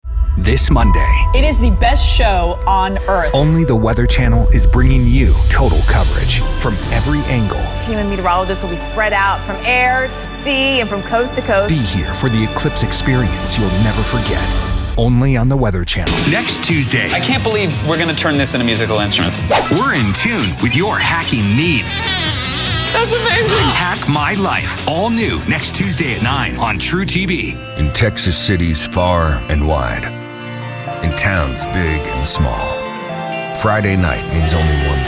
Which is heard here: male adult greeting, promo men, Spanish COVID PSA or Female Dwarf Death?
promo men